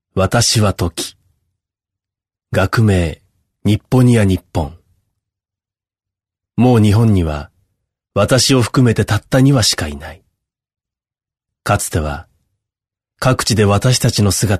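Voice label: narration male natural; m otituita funiki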